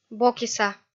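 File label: "бокиса", Komi, female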